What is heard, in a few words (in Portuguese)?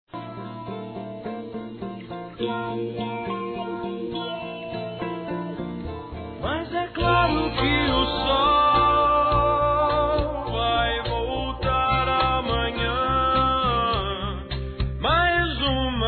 ROMANTICO DISTANTE F; Telemensagens; Românticas